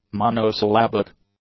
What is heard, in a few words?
"monosyllabic", English; adjectives